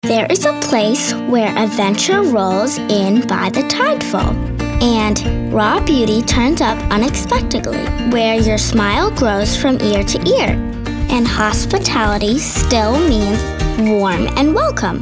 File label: big personality; Hospitality